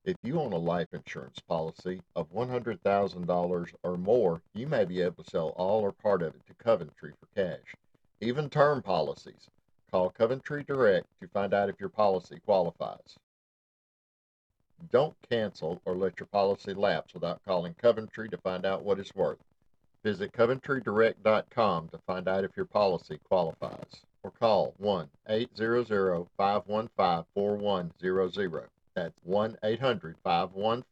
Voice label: Announcer; Coventry Direct Multi Spot Campaign

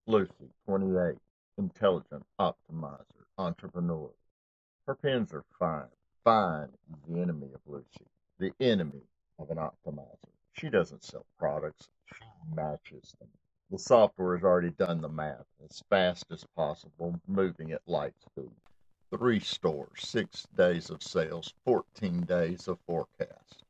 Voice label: Narrator - Voiceover; 'Lucie Runs'

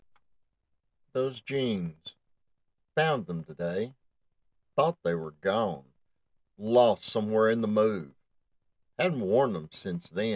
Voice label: Voiceover; Worn In; Joshua Tree Voiceover Sides

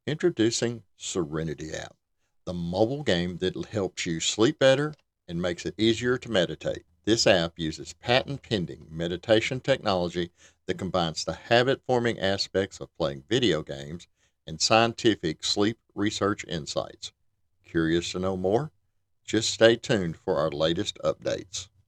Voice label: Explainer Video Voice Sample

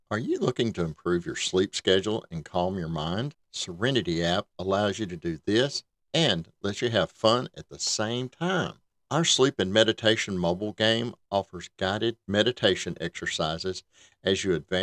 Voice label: Online Ad Voice Sample

